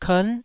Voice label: "kern9", taiwanese syllables

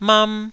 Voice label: "mam1", Taiwanese syllable

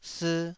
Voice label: "sii2", Taiwanese syllable

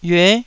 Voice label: "yue9", taiwanese Syllables

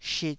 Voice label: "shit2", taiwanese Syllables

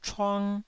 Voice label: "chuang1", taiwanese

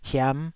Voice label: "hiam5", Taiwanese syllable

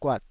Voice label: "guat3", taiwanese

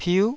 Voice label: "piu9", taiwanese

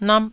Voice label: "nap1", Taiwanese

